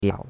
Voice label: "biao3", chinois; Cours-de-Chinois

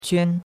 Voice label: "quan1", chinois; Cours-de-Chinois

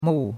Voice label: "mou4", chinois; Cours-de-Chinois

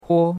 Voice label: "huo1", chinois; Cours de Chinois

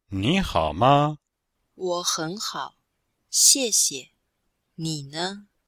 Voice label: dialg, chinois

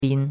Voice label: "bin1", chinois; Cours-de-Chinois

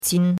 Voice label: "qin1", chinois; Cours-de-Chinois

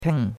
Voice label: "ping4", chinois; Cours-de-Chinois

